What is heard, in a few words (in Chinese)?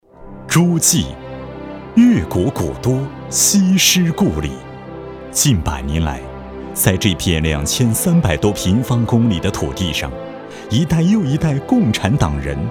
男国 专题 政府 沉稳; 诸暨